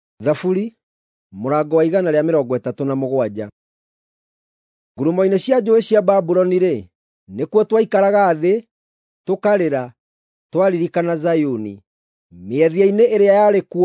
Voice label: psalms, gikuyu drama